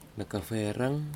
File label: "nɨkafereng", Nafe; Vanuatu; plant names